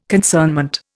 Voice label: "concernment", Indian